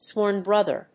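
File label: "sworn brother", English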